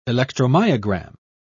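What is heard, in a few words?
"electromyogram", British English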